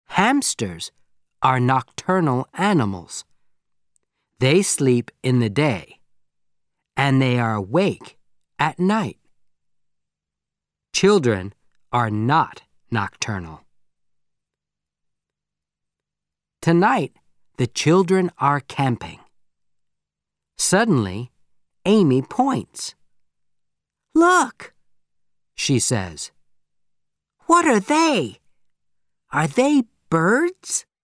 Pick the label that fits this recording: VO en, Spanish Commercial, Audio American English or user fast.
Audio American English